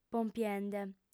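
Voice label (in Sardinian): "pompiende", female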